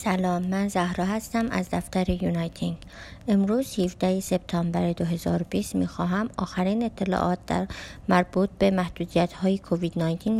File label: Updates Audio Dari Persian; covid-19